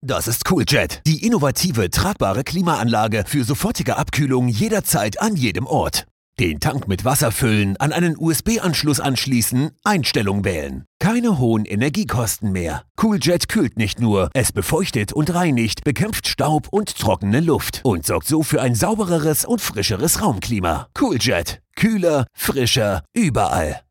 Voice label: german voice commercial; cooljet